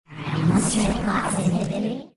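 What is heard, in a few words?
whispering; taunt packs; darkness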